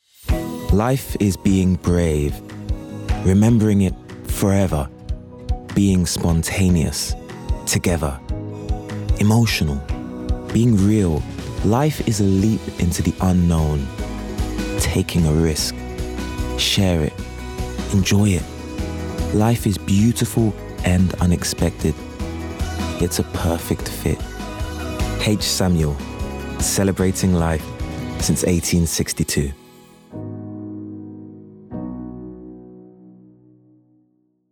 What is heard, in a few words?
male english commercial; Devine Voices